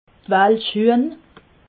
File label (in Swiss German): "walschhuehn", alsace